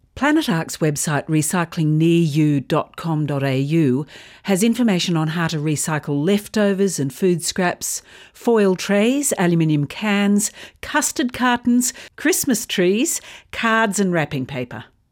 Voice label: radio grab